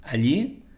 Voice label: "allí", es; allí [aˈʎi]